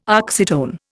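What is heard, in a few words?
"oxytone", Indian English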